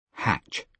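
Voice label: "hatch", British English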